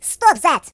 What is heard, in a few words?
Cut it out, Russian; hedgewars